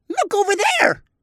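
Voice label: "look over there", English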